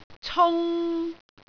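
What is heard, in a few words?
"cung3", Cantonese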